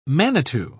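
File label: "manitou", British English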